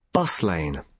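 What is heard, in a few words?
"bus lane", British English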